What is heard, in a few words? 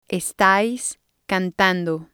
"estais cantando", spanish